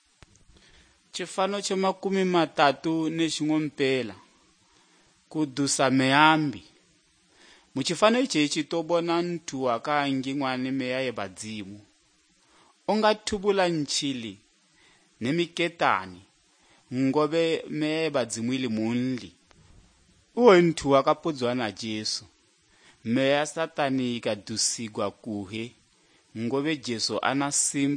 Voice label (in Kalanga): good news kalanga lilima, drama